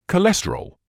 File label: "cholesterol", medical english uk